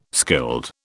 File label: "skilled", British